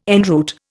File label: "en route", Indian